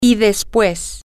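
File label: Spanish, female; and Then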